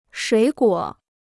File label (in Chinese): "水果", Chinese